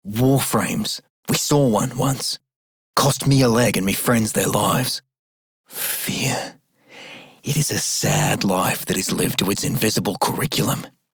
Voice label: Male Worker, en; Dialog; Warframe; Solaris Venus